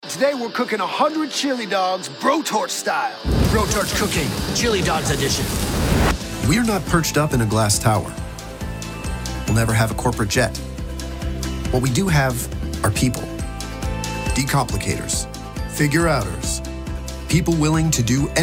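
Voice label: Male Commercial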